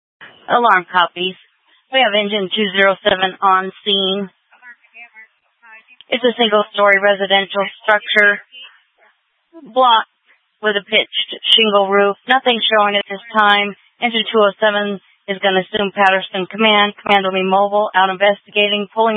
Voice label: Fire Dpts VHF 154.800 Patch, scanner; TOPAZ PD (TRWC); Mesa